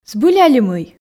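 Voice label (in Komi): збыль али мый, Komi, female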